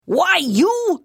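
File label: Thief, Khajiit female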